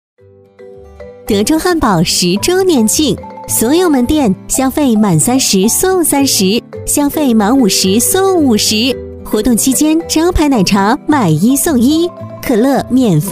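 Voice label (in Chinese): 女-食品广告【活泼愉悦】; 德州汉堡十周年庆; 甜美亲切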